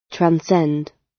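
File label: "transcend", British English; The arts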